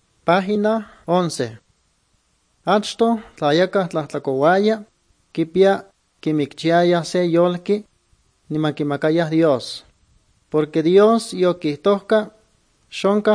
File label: good news, nahuatl guerrero chilapa